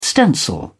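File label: "stencil", British English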